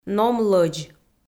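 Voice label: "ном-лӧдз", Komi, female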